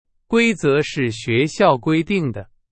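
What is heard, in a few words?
Chinese; hsk2; 是。。。的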